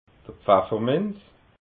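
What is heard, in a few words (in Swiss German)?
"pfafferminz", Alsatian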